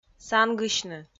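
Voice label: "сангысьны", Komi, female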